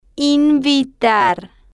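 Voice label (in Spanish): "invitar", Spanish, female, slow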